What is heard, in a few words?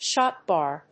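"shot bar", English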